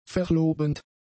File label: "verlobend", German; adjectives